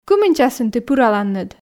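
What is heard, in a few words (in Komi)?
кымын часын тi пыраланныд, Komi, female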